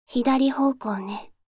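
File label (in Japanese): 左方向ね, カーナビ案内音声; カーナビ(で使えるかもしれない)案内音声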